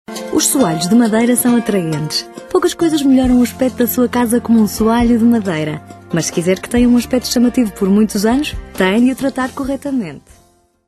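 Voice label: Portuguese, female, narration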